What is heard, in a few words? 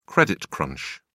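"credit crunch", British English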